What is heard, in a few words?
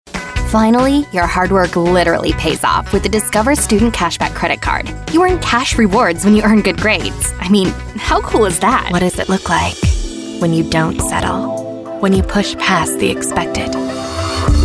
Commercial